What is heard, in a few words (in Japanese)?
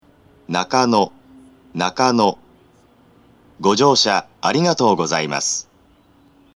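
到着放送, 男声 宇都宮型ATOS; 5番線 西船橋・東葉勝田台・津田沼方面; JR東日本; 放送は全列車共通です。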